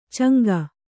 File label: "ಚಂಗ", Kannada